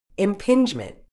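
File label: "impingement", British English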